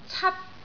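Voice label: "cat1", Cantonese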